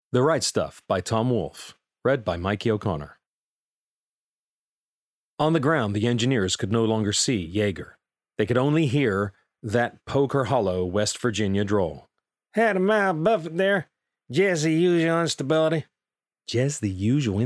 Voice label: Bookread; The Right Stuff